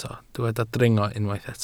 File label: "dringo", Welsh